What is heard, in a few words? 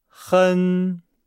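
"hen", Chinese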